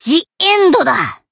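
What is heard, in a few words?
Voice Japanese; morgana; finish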